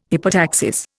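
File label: "hypotaxis", Indian English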